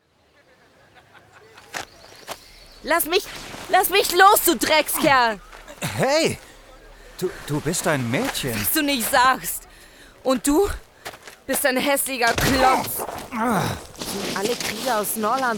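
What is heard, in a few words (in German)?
Hörspiel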